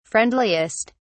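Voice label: "friendliest", British English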